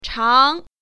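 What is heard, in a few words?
"chang2", Mandarin Chinese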